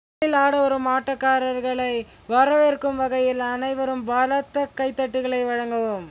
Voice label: tamil